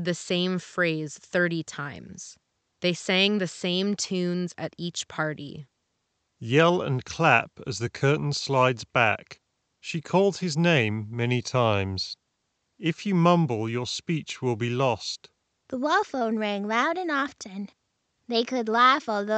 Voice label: SteelSeries Arctis Nova 3 3.5mm Ideal microphone sample